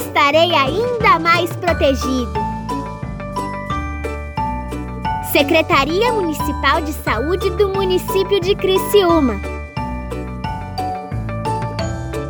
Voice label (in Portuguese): campanha de vacinação voz infantil; Secretaria Municipal de Saúde de Criciúma